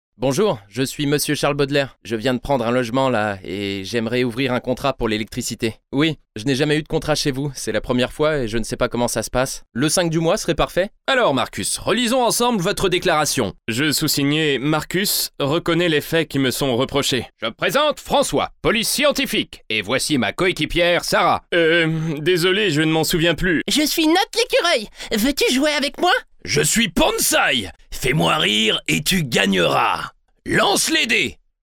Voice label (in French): e-learning personnages divers; training